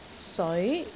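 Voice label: "seoi2", Cantonese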